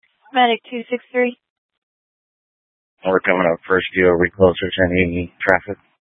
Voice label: Fire Departments, scanner; TOPAZ; C4 Gilbert, QC, Superstition; Mesa Simulcast

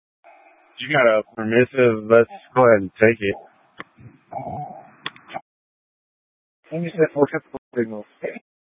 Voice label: Light Rail Emergency, scanner

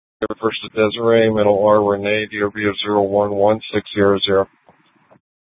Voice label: Police Dispatch; Regional Wireless Cooperative (RWC) - Simulcast C- Chandler; Maricopa Police (City - Pinal County)

